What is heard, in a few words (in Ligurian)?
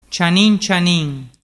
"cianin cianin", dialettu